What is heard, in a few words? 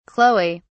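"chloe", English, British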